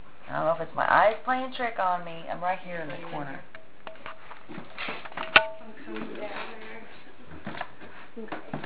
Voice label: deep male voice in background; after seeing shadow

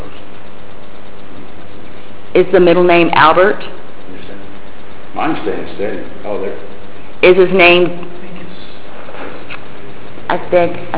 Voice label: says name in male voice

